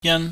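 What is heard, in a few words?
"yan", Cantonese, high falling tone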